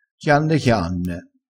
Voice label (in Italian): "chianne", crespinese